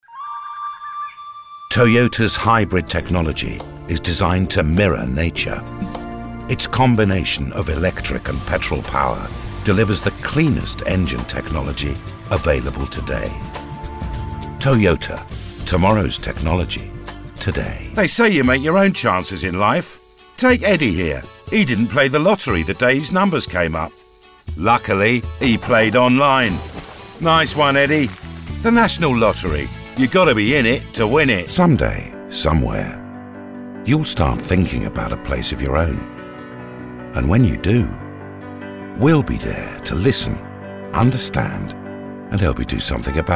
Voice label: Commercial